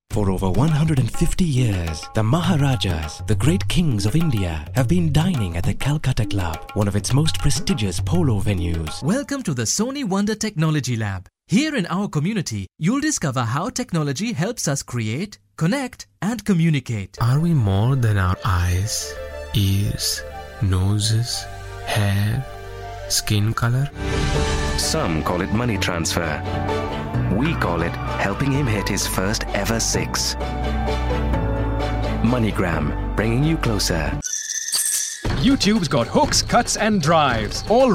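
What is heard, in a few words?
English UK, Indian accent; GoLocalise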